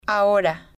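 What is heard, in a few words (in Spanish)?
"ahora", spanish, female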